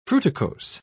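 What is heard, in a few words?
"fruticose", British English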